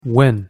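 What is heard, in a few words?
"when", English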